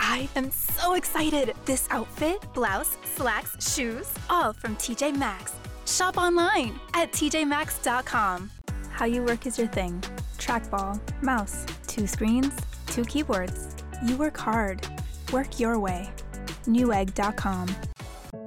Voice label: Commercial